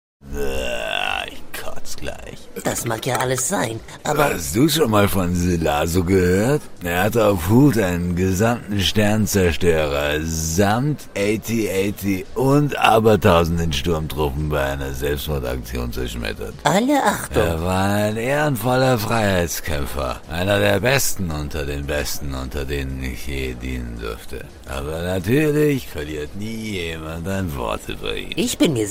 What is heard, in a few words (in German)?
Hoerspiel besoffen; Galactic Diaries